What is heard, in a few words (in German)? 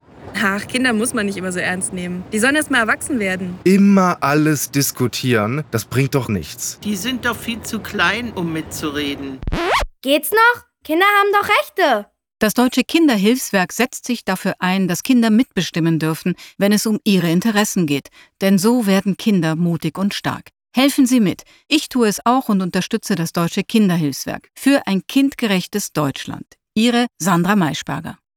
Radiospot; Sandra Maischberger; DKHW; Beteiligung; 50 Jahre DKHW; Jubilaeumskampagne